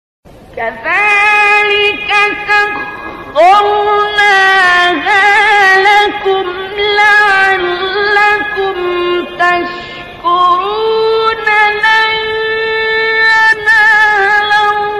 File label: sad